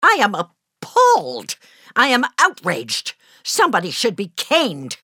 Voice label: COMPLAIN; bully; Peabody